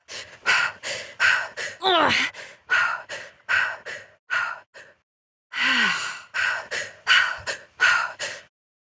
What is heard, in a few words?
Operator Voice, en; Warframe; Entrati Lab Quest; Full Quest